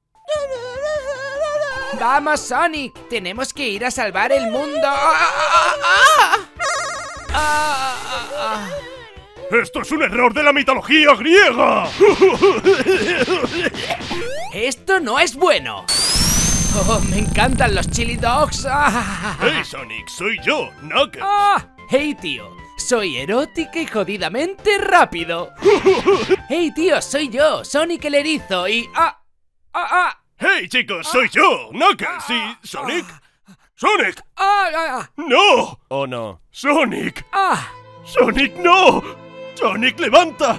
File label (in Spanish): Spanish Fandub; Sonic Mania